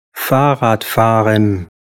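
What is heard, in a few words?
"fahrradfahren", German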